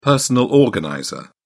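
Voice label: "personal organizer", British English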